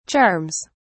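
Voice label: "charms", British English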